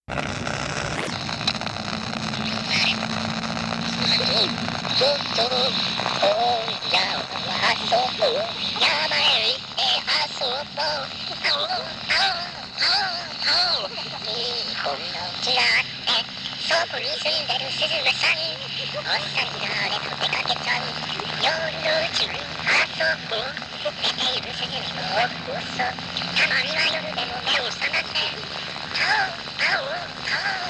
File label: car-to-car wireless talking